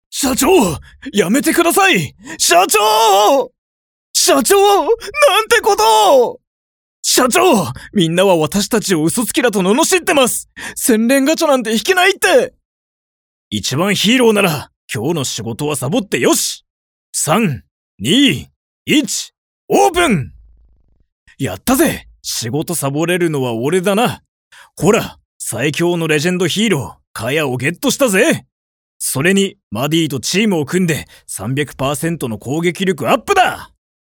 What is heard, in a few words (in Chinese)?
日语男声 游戏信息流多种声线